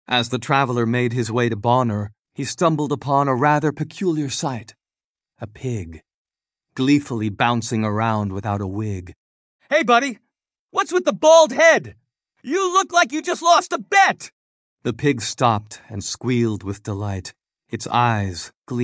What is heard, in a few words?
male US story; As I Went to Bonner